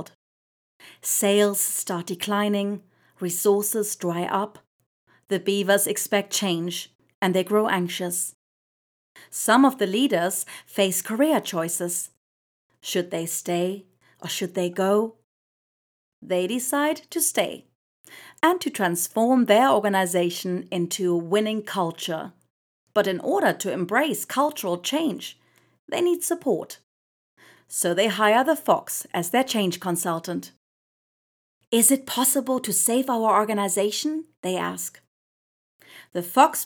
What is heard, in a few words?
female german english with undetectable accent corporate learning